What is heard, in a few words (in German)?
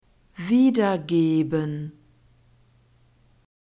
"wiedergeben", German